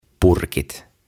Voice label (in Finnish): "Purkit", "Jars"